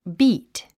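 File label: "beat", English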